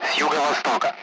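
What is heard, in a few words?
Speech, Russian; Allied Flight; DCS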